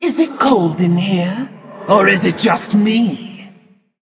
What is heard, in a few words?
Female Elemental Play